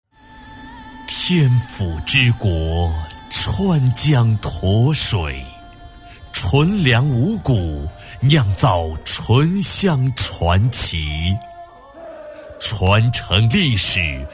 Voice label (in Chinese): 男40 品牌广告, 国语男声配音